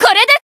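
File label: attack, Japanese; anaberu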